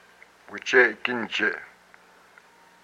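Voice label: "pit of my stomach", Hupa, male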